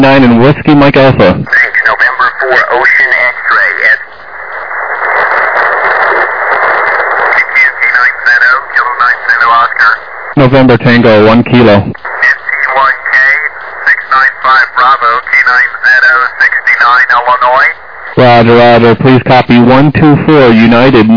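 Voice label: upper sideband 14MHz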